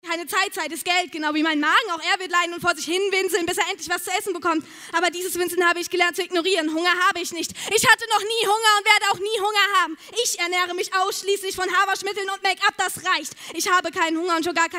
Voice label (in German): Poetry Slam; Die junge Frau hier ist Hessenmeisterin – im Poetry Slam.; U20; Kulturtipp: Poetry Slam auf der Sommerwerft; LUX Radio